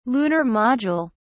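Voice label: "lunar module", British English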